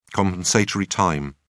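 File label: "compensatory time", British English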